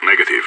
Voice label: negative, radio

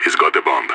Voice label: bomber spotted, radio; SAS

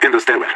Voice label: stairwell, radio; LEET

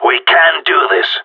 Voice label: peptalk, radio; GSG9

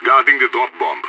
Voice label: guarding loose bomb, radio; SAS

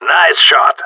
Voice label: good shooting, radio; GSG9

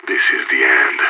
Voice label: bomb fallback, radio; IDF

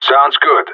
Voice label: affirmative, radio; GSG9

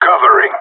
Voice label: covering, radio; GSG9

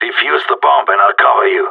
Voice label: hurry bomb, radio; GSG9

